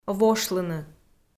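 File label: "вошлыны", Komi, female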